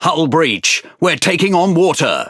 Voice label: Speech Alarms Critical Flooding, EN GB; World Of Warships

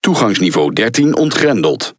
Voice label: NL Speech Access Level; World Of Warships

